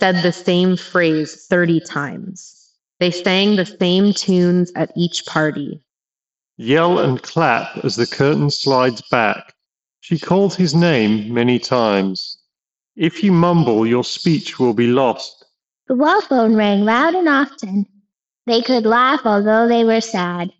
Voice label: Headphone reverb

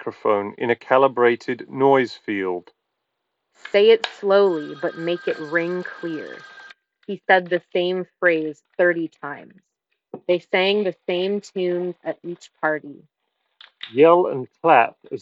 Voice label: Bose QuietComfort Earbuds Office

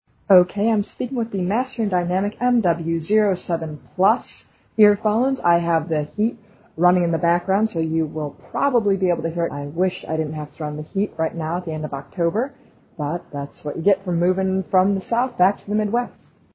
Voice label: Master Dynamic MW07 Plus Microphone

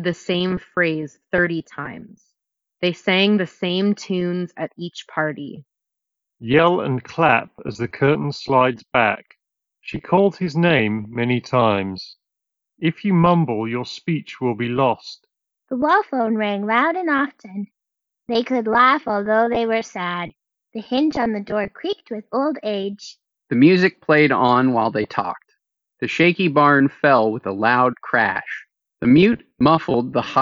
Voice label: Ideal microphone sample; JBL Live Beam 3

